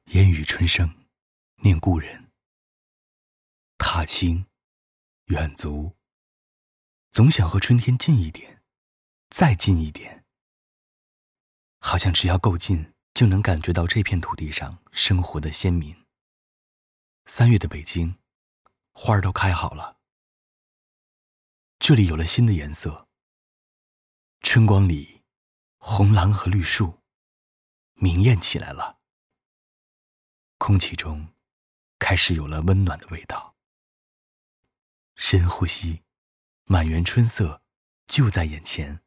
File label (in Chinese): 走心 深情